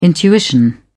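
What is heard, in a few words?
"intuition", English, uk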